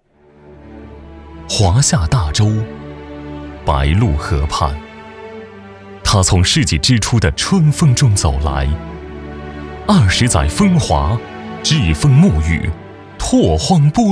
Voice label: 男, 大气起伏; 风华二十载

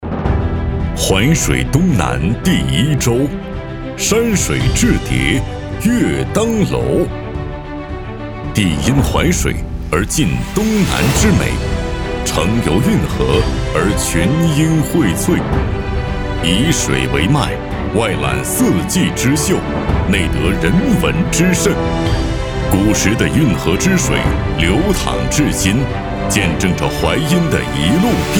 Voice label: 男, 专题 招商片; 淮阴

